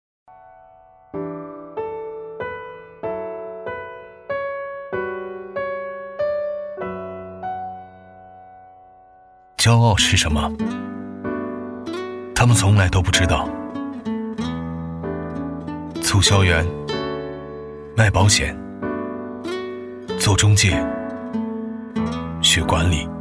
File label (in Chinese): 男 旁白 走心 叙述 素人 低沉; 有种骄傲与生俱来